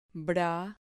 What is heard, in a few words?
"bra", Spanish